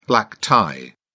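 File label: "black tie", British English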